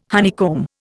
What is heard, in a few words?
"honeycomb", Indian